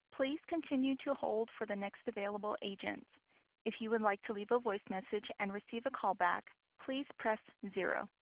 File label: ACD Queue with Voicemail Option